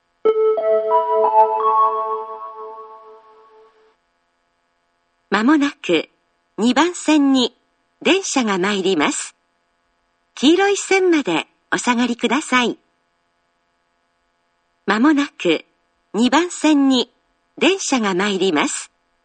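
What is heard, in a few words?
platform 2 down approaching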